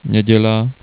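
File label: "nedela", Slovak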